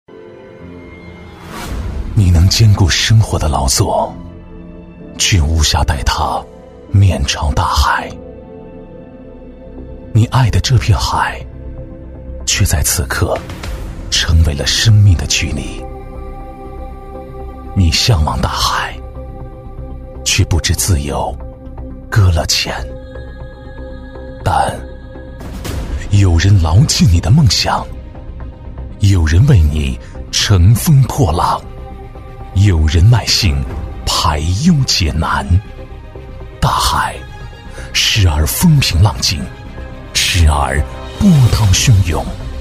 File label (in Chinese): 男-旁白配音-走心; 大海; 男88-旁白配音《大海》-走心